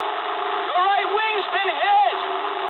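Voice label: English right wing mood med; War Thunder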